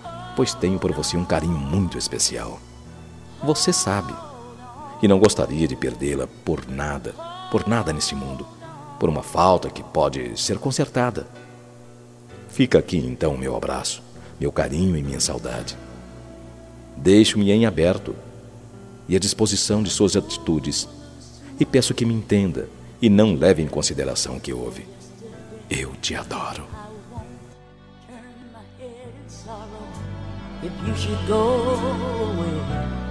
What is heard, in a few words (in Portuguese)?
DESCULPAS PELO BOLO MASC; 86 DESCULPAS PELO BOLO MASC; Perdão